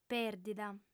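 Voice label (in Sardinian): "pèrdida", Sardinian, female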